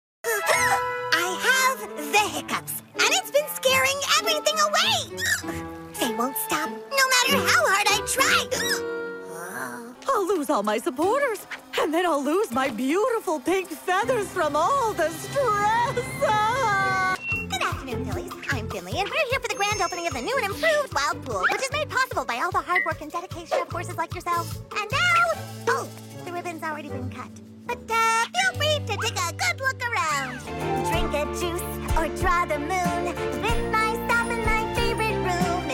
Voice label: Animation and Games English